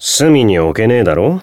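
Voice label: growth, Japanese; hardy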